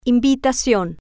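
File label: "invitacion", Spanish, female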